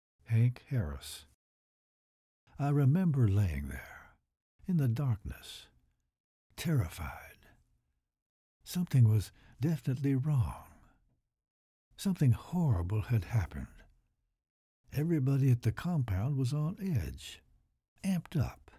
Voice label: Voice of tiger in emotional story; Buddashay